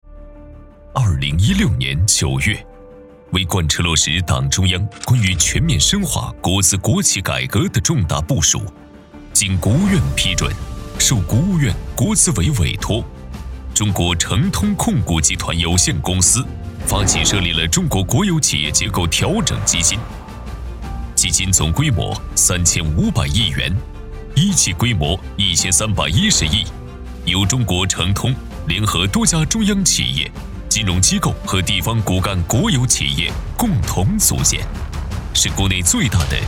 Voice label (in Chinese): 专题类; 中国诚通控股集团